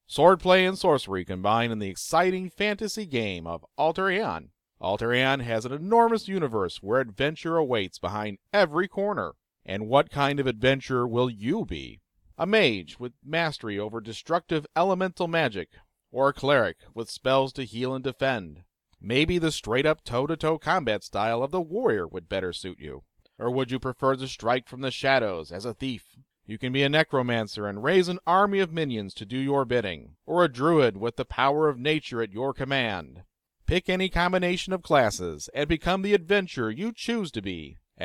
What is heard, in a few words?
radio ad male; alter aeon class